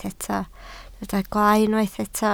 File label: "gwaalau", Welsh